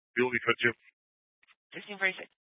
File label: Grand Junction Fire Department Dispatch; scanner